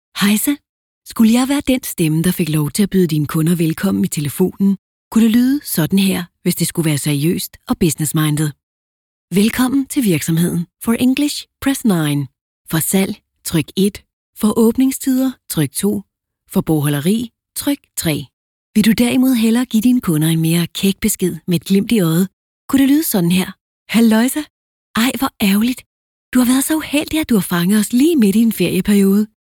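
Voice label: Danish, IVR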